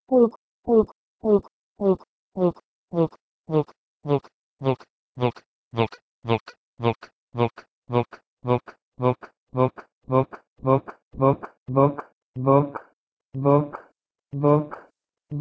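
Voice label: Proto-Indo-European wlkw to Lithuanian vilkas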